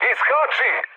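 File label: Serbian bailout mood med, speech; War Thunder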